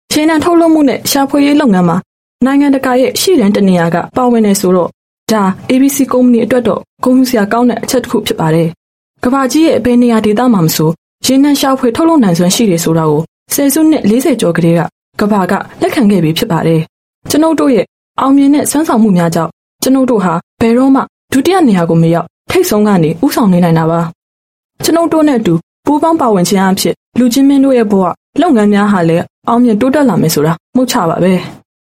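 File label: Burmese F Marketing, female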